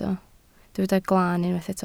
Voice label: "glaan", Welsh

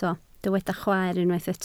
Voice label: "chwaer", Welsh

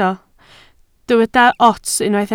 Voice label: "ots", Welsh

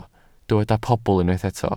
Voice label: "pobl", Welsh

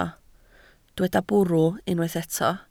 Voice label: "bwrw", Welsh

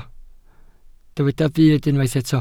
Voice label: "byd", Welsh

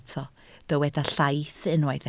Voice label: "llaith", Welsh

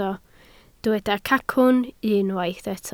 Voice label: "cacwn", Welsh

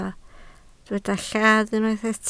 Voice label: "llath", Welsh

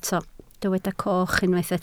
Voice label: "coch", Welsh

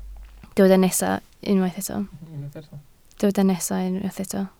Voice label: "nesaf", Welsh

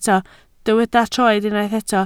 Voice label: "troed", Welsh

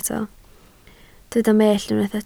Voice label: "mellt", Welsh

